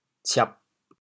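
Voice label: "ciab4", Teochew, chaoyang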